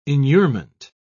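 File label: "inurement", English, uk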